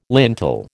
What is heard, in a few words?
"lintel", American